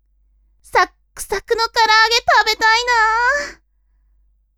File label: women